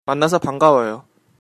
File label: meet, Korean